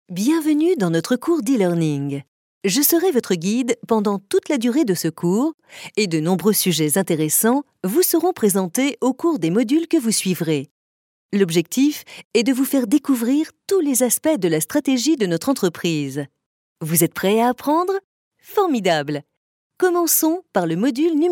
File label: French announcer elearning